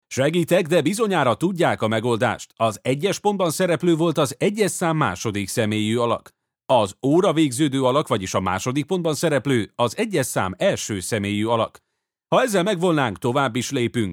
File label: Hungarian e-learning